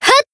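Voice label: Voice attack Japanese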